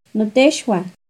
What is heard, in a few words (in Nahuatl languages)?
"notexhuan", Náhuatl; mis cuñados(hombre a hombre); NAHUATLAHTOLLI